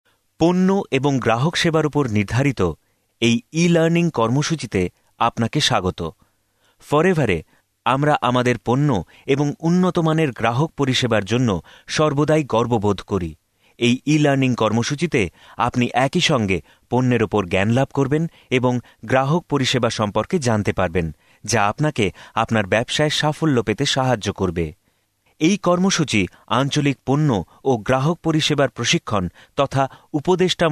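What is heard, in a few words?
Bengali, male, Elearning; voice over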